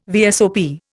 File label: "VSOP", Indian